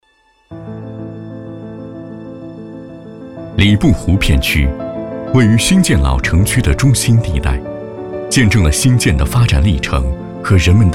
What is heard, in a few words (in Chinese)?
男 品质 城市规划汇报; 南昌市新建区